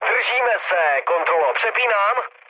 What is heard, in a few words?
chat, speech Czech; War Thunder